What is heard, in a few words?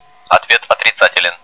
ahh negative; cstrike; bot